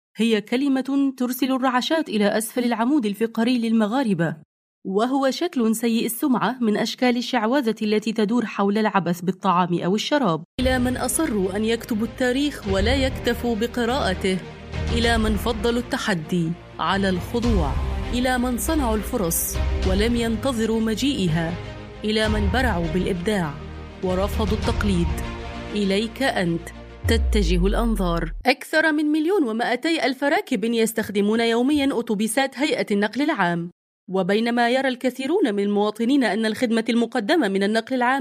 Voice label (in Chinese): 阿拉伯女声